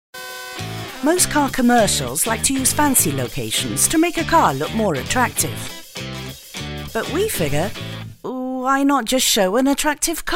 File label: commercial british female